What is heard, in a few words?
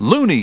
Loony; Loony Labyrinth™ 1.02 Demo